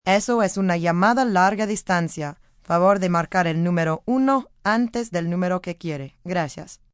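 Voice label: Long Distance Spanish, Telephone operator, Spanish, female; VoiceBox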